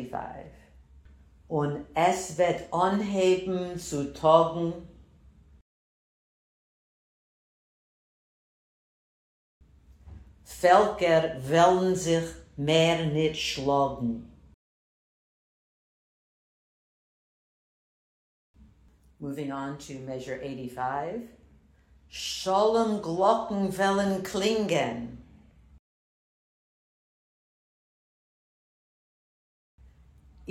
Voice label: pronunciation drill, chorus; Khevre Freylekh